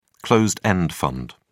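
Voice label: "closed-end fund", British English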